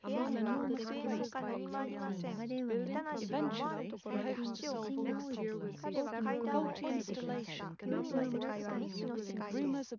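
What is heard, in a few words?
female, instantaneous, mix; SiSEC11; underdetermined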